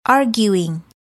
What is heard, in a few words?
arguing